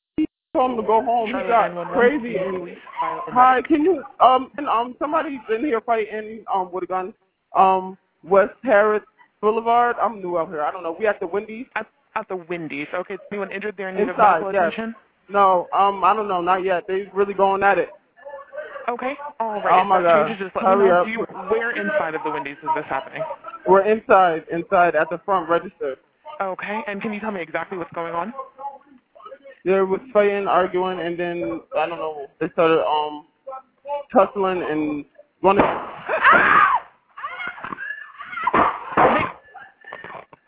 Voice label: 911 Call; Wendys; 911 Audio Recordings From Fatal Wendy’s Shooting Released